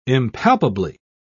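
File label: "impalpably", British English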